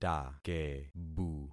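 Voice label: "takebu", concatenated syllables; Affixing experiment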